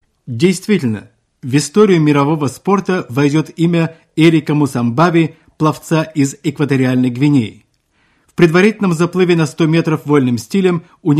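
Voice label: Russian, male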